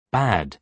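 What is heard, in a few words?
"bad", British English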